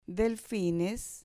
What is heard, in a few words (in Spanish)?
"delfines", Spanish